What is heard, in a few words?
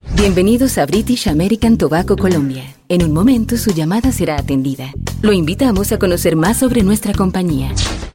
IVR BRITISH